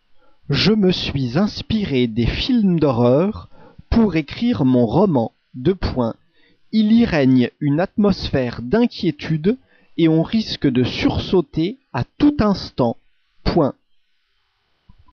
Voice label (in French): dictee